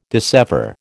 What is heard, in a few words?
"dissever", American